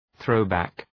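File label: "throwback", English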